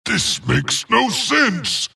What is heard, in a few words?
wraith death; dota; skeleton king